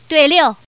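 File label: Woman, pair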